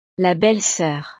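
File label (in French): "la belle soeur", French; familia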